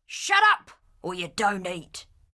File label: Ranged Female Patrol Line